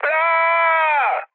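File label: Italian damaged pilot mood med, speech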